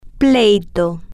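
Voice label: "pleito", Spanish, female, slow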